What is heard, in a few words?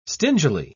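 "stingily", English, British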